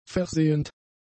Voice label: "versehend", German; adjectives